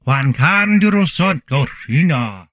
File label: humanoid male; Stellaris; humanoids